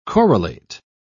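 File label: "corollate", British English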